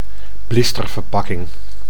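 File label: "blisterverpakking", Dutch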